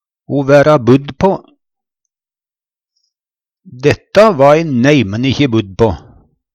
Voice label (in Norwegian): vera budd på, Numedalsmål; forvente, vera førebudd; Detta va e neimen ikkje budd på.; Uttrykk